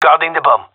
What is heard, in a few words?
gendermerie male; loose bomb; Counter Strike Model Pack; CS:GO